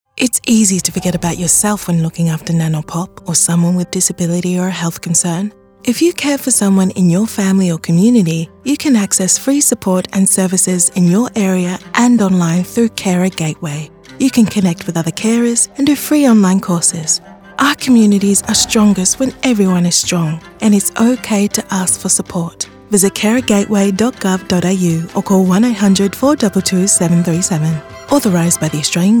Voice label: English, radio; Carer Gateway

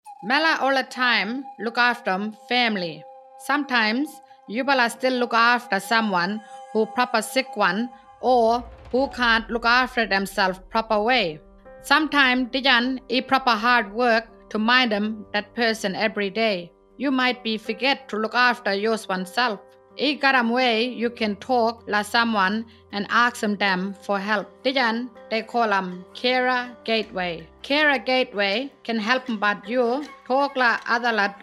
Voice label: Kimberley Kriol, radio; Carer Gateway

